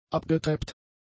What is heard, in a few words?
"abgetreppt", German; adjectives